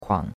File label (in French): "kuang3", chinois; Cours de Chinois